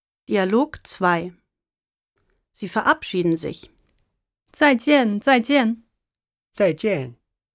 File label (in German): Dialog